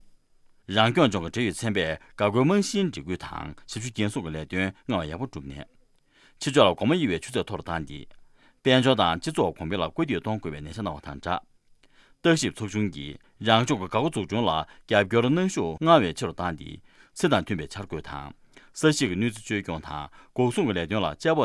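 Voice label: Tibetan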